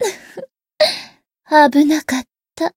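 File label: Voice win barely, Japanese; toova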